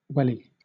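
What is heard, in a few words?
"welly" English, British; pronunciations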